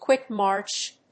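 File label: "Quick march!", English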